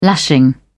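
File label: "lashing", British English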